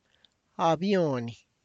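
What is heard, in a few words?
tlacochahuaya, Zapotec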